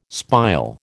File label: "spile", American